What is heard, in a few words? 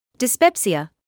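"dyspepsia", British English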